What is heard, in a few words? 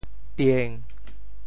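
"tieng1", Teochew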